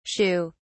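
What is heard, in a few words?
"sheugh", British English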